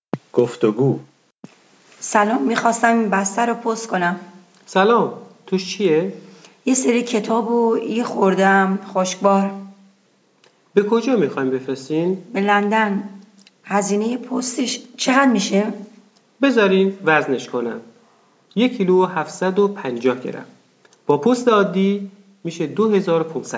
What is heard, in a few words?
Dialog, persian